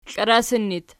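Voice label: "klarasan" locative, Archi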